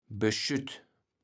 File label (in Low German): "Beschütt", kaevels platt